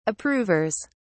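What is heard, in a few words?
"approvers", British English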